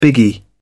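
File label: "biggie", British English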